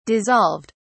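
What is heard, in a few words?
"dissolved", British English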